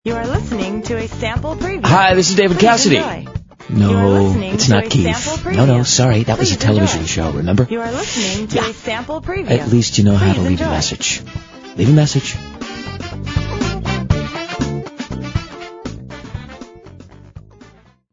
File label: Voicemail Sample